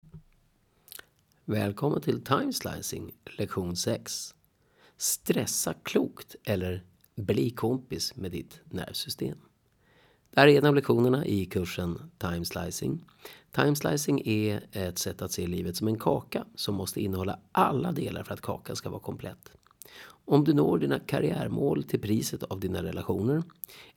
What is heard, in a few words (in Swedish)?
Podcast; TimeSlicing Stressa Klokt